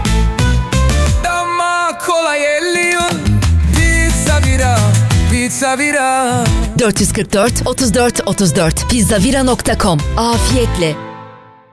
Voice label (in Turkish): pizza reklam; pizza vira